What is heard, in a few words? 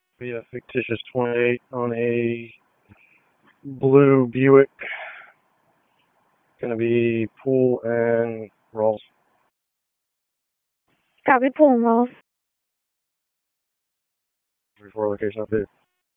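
Police District Dispatch, p25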